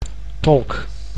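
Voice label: "tolk", Dutch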